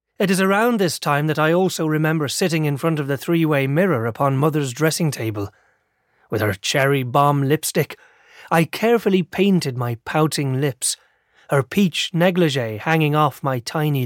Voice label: irish non-fiction audiobook

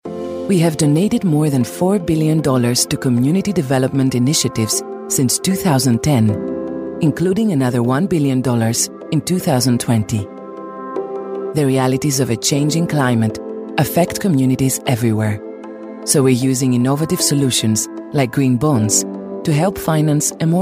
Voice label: greek female voice corporate english

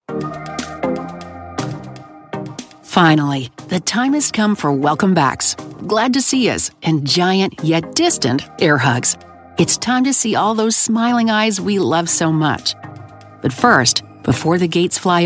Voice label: northern american corporate

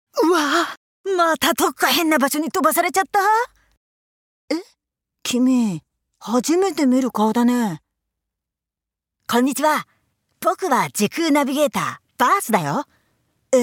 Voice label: japanese voice character

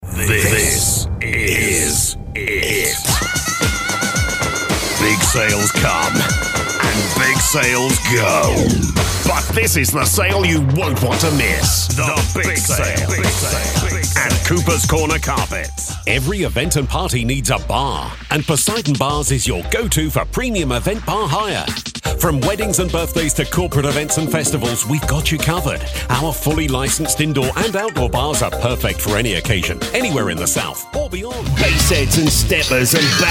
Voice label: commercials hard sell

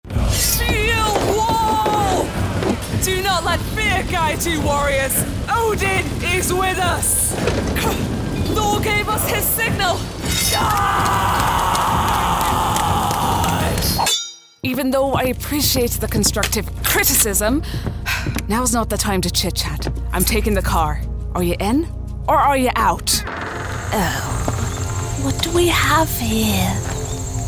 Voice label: northern voice video game

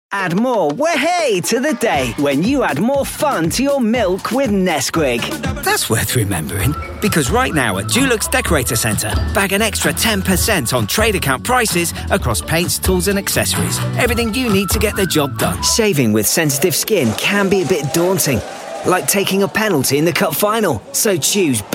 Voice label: commercial montage

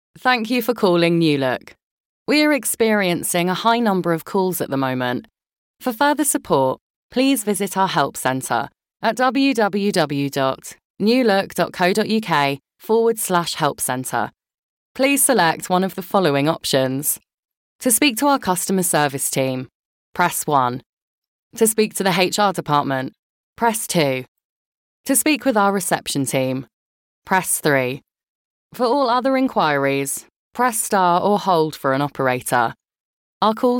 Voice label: telephone

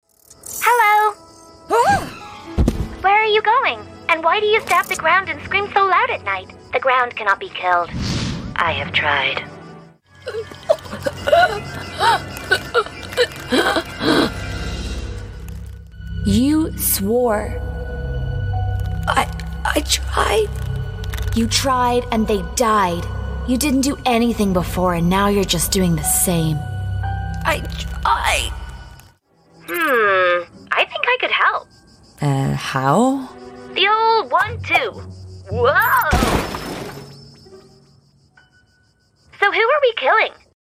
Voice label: irish voiceover character

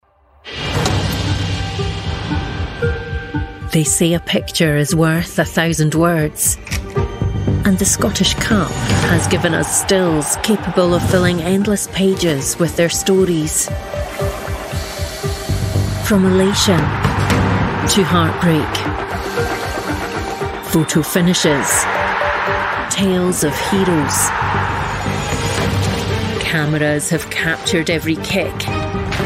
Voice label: sports suspense excitement

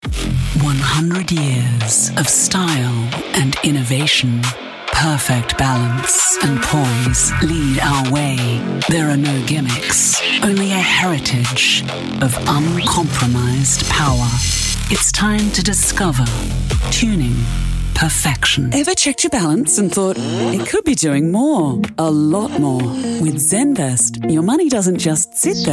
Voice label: Australian female, commercial

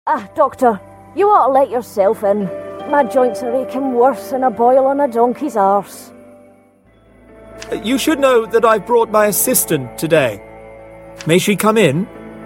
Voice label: scottish grumpy and pushy

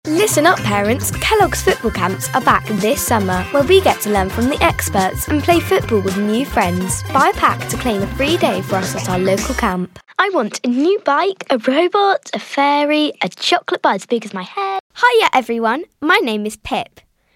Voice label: daughter 12 in 2026

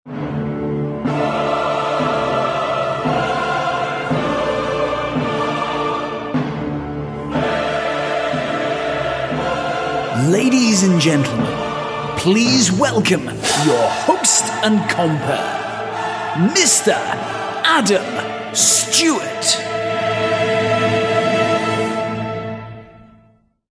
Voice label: events, voiceover

